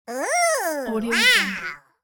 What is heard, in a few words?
cartoon cute ooh wow